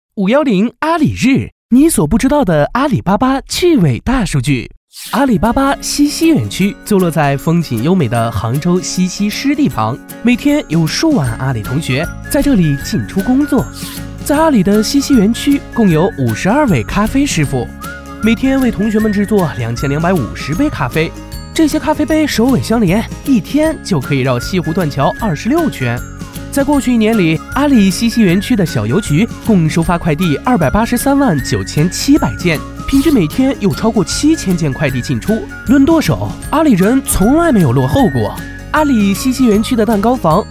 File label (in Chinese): 男, MG病毒; 飞碟; 阿里巴巴